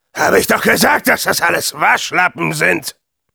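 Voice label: Demoman cheers, German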